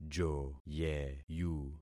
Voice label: "joyeyu", concat syllables; Affixing experiment